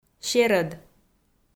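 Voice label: "серӧд", Komi, female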